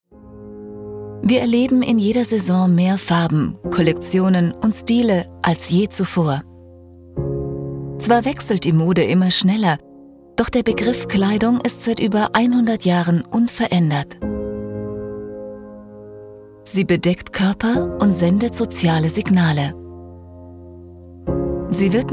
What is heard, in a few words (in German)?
Imagefilm; AEG